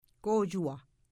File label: "Kojua", Mi'kmaw